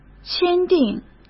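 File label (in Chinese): "签订", Mandarin; chinese-flashcards; hsk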